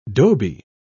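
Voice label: "dhobi", British English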